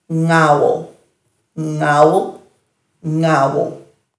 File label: "ngawal", Dhurga